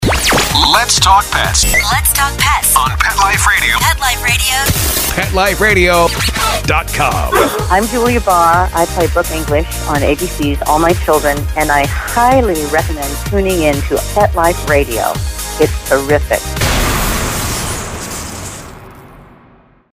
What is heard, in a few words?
Generic Sweeper; PetExpo